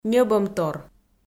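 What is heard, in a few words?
"ньӧбӧмтор", Komi, female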